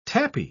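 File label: "tapis", British English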